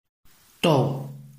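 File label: "dou", Chinese, tone 4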